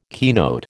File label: "keynote", American